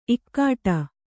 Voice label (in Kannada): "ಇಕ್ಕೋಡು", Kannada